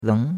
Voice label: "leng2", chinois; Cours de Chinois